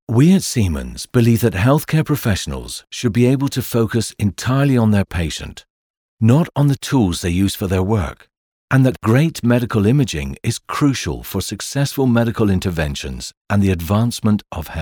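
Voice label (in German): English UK Kommentar Neutral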